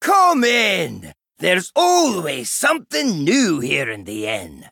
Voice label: Innkeeper Male Dwarf Greeting